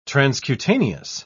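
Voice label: "transcutaneous", British English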